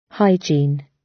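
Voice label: "hygiene" British English; Time, history; Thời gian, lịch sử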